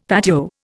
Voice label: "patio", Indian; Dictionary

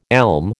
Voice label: "elm", American

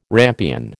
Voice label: "rampion", American

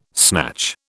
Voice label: "snatch", British

